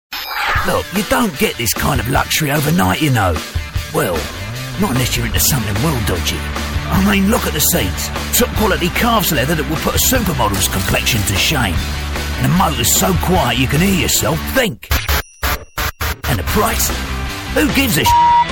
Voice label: cockney; style of jason statham

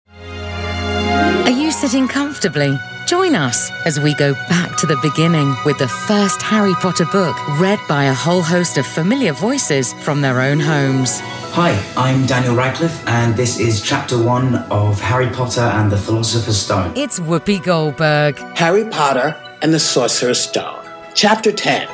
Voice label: audiobook intro

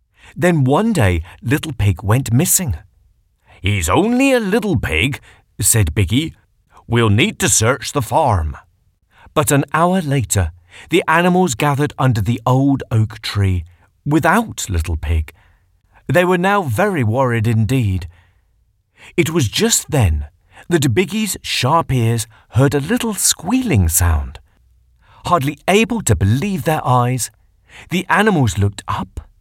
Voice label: character

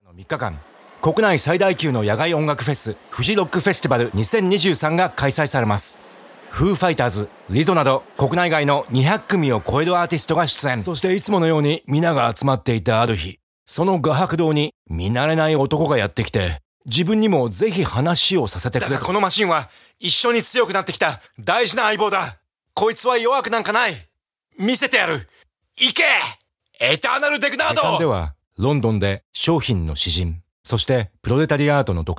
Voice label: japanese male voice montage

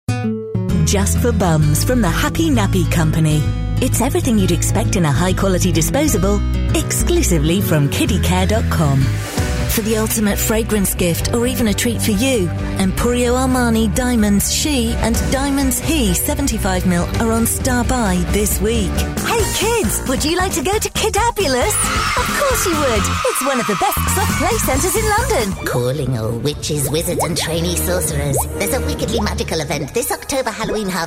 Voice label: commercials

